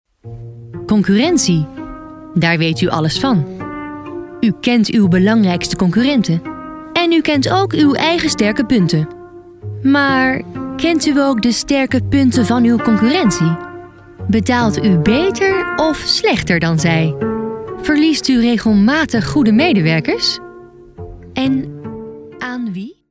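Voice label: Dutch, female, narration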